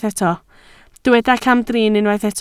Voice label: "camdrin", Welsh

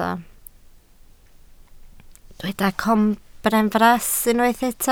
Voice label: "corbenfras", Welsh

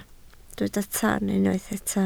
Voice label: "toon", Welsh

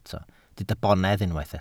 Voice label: "bonedd", welsh

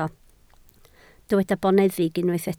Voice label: "boneddig", Welsh

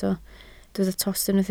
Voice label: "tost", welsh

